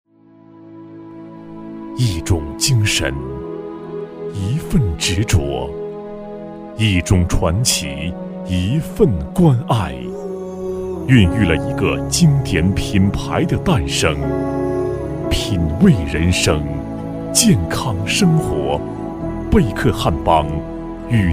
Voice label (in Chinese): 男 低沉力度; 贝克汉邦-烘培原料